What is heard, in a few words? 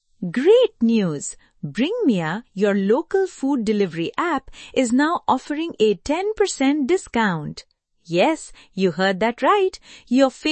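English Voice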